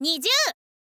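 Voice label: "nizyuu", Japanese, girl; voice; info girl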